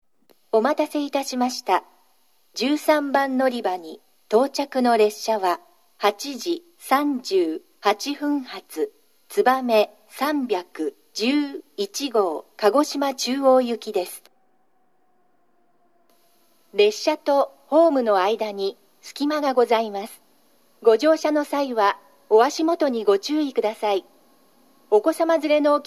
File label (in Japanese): 新水俣, 13番のりば接近放送; ホーム無人化後; つばめ311号 鹿児島中央行き; shin minamata